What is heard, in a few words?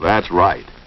that's right male; Voice